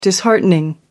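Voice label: "disheartening", British English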